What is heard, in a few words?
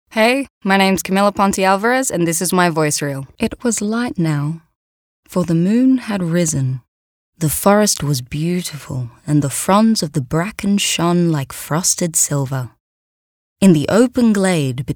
VO Narration